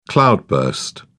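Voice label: "cloudburst", British English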